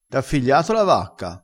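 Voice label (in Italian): "figlia", vocabolario dialettale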